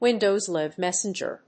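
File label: "Windows Live Messenger", English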